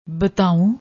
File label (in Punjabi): "bataaoon", Punjabi